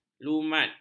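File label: "lumat", Malay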